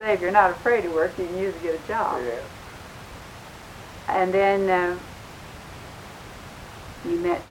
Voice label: Oral history with 54 year old black female Grand Junction Colorado and a 61 year old black, american english dialect